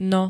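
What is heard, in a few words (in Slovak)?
"no", Slovenské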